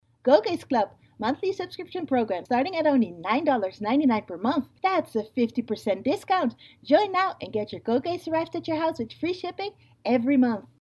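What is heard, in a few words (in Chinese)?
荷兰语女_外语_小语种_样音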